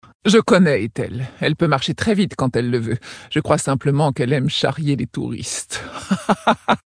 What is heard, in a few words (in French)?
Female; Tauren Haut Roc; Blague